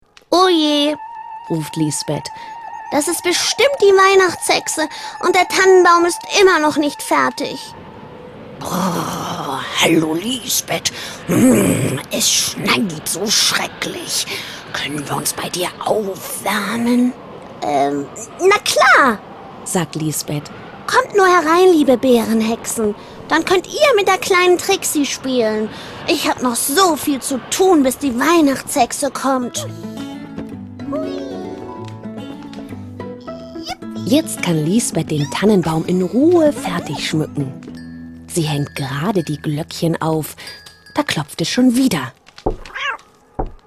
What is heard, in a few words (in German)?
Hoerbuch; Die kleine Hexe feiert Weihnachten